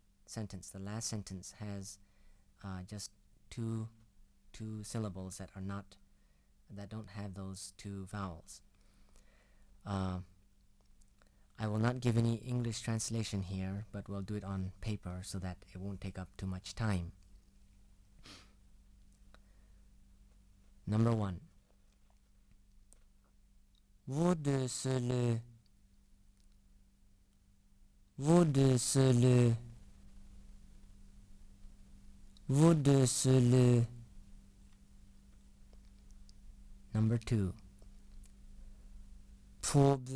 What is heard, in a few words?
LISU Labio Detentalization